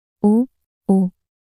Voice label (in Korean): 오오 낱소리 여자